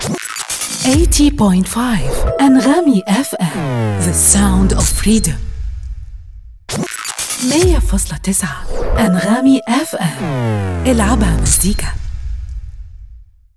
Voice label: Radio Imaging; Commercial; AnghamiFM